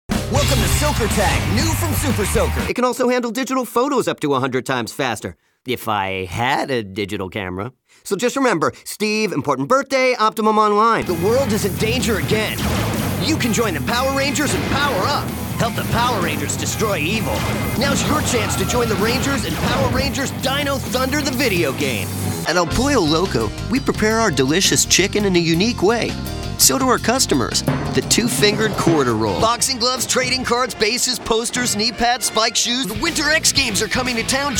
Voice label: Commercial; Las Vegas-based and Los Angeles trained Voice Actor